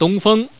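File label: "dong", Chinese, female; 男; 欢乐麻将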